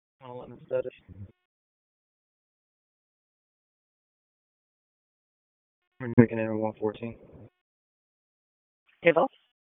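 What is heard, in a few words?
Police Southwest District Dispatch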